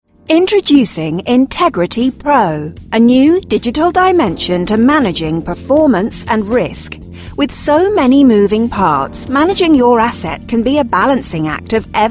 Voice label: english british voice over female narrator